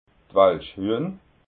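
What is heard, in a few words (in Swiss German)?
"walschhuehn", Alsatian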